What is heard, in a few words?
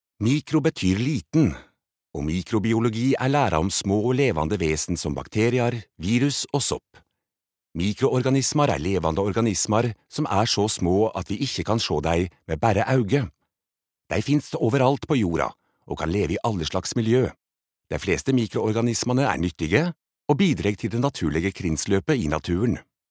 no nynorsk